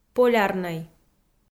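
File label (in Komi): "полярнӧй", Komi, female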